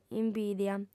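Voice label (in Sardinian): "imbìdia", Sardinian, female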